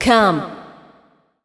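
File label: vocal female, Dance Vocals, spoken words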